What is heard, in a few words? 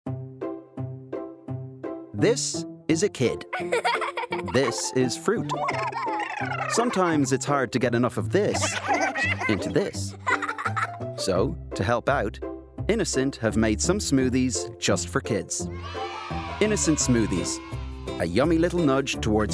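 commercial reel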